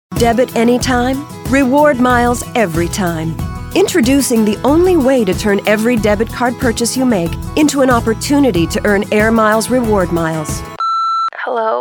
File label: commercial female